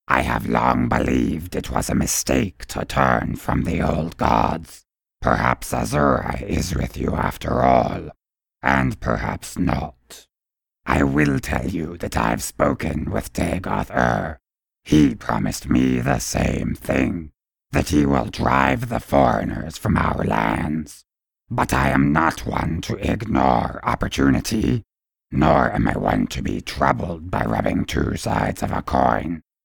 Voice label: robotic earthling dark elf audition